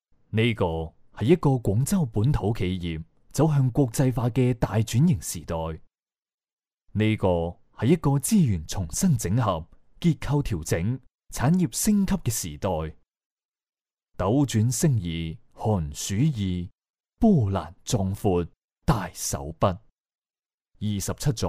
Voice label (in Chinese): 粤男 专题 企业